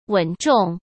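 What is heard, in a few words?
"稳重" Mandarin Chinese; chinese flashcards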